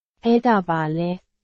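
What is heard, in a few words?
Burmese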